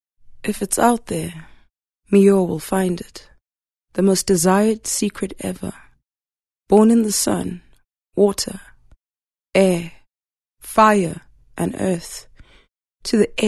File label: English Intimate